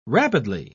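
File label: "rapidly", British English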